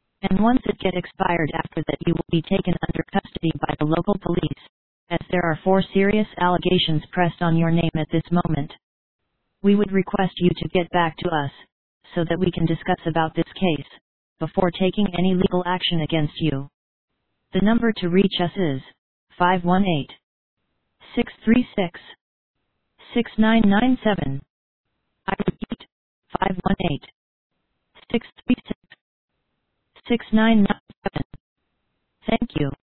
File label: Scam Phone Call